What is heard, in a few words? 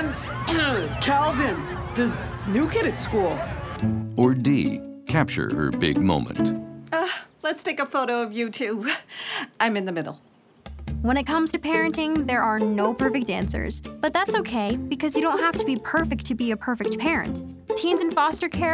Radio Prom; ad council adoptuskids adopt from foster care